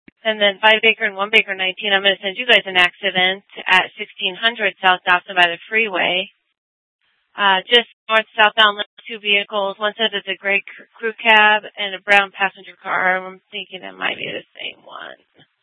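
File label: police dispatch, scanner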